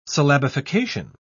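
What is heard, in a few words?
"syllabification", British English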